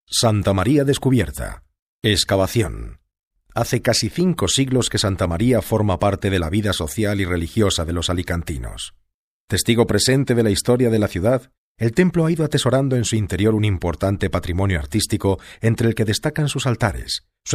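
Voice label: Spanish European General; GoLocalise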